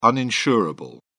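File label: "uninsurable", British English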